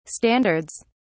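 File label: "standards", British English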